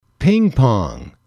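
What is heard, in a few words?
"ping pong", English, male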